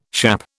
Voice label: "schappe", British